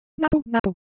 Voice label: Japanese Color Visual, Ideo Phones

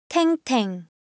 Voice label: Korean, Ideo Phones; Shape

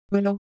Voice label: Ewe, ideophones

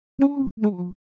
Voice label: Japanese Texture, Ideo Phones

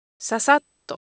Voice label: Japanese Motion, Ideo Phones

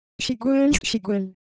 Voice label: Korean Sound, ideophones

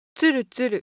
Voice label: Japanese Texture, Ideo Phones

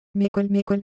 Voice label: Korean, ideophones; Texture

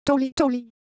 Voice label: Ewe Motion, ideophones

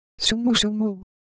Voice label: Ewe Color Visual, ideophones

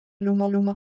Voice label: Ewe Motion, IdeoPhones

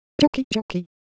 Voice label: Japanese Sound, Ideo Phones

